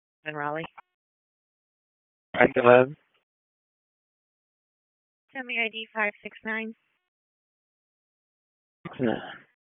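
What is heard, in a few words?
Police Northeast District Disp, radio; Raleigh; wake p25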